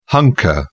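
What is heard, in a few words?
"hunker down", English, British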